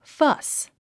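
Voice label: "fuss", English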